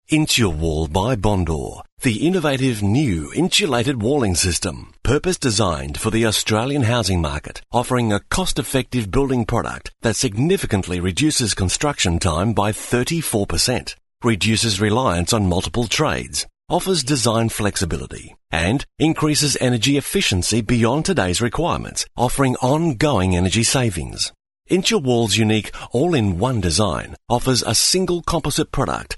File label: Male Talent, Corporate Sound